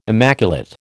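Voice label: "immaculate", American English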